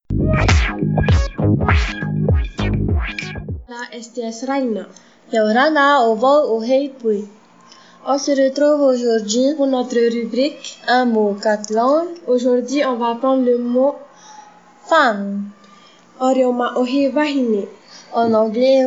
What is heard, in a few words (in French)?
femme